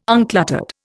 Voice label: "uncluttered", Indian